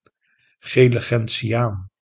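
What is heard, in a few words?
"gele gentiaan", Dutch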